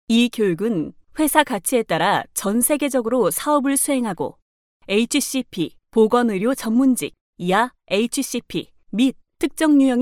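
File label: Korean, female, narration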